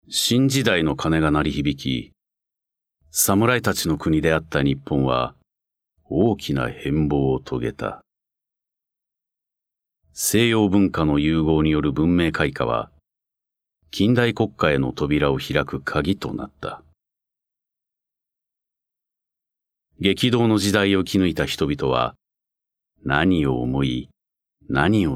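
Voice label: narration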